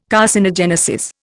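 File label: "carcinogenesis", Indian English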